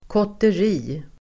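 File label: "kotteri", Swedish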